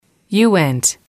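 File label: you went, female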